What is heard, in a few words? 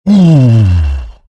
happy; Bristleback